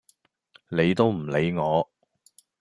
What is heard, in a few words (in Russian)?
Голоса, Гонконгский